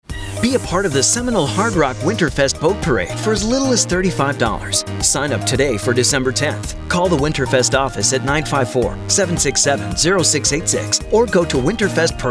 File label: boat entry COMMERCIAL; Winterfest Boat Parade